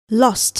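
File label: "lost", English word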